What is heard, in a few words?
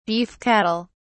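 "beef cattle", English, British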